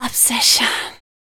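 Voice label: vocal female, Dance Vocals, spoken words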